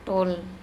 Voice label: "Tol", andamanese; pluck off